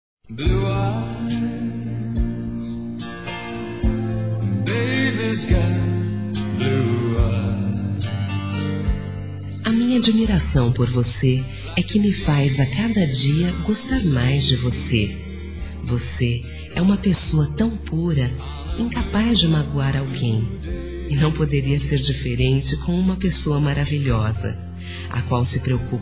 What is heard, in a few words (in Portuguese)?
AMIZADE F, Telemensagens